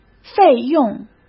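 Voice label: "费用", Mandarin Chinese; hsk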